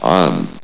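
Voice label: "on", male synthesized announcer voice; blizzard; hlvox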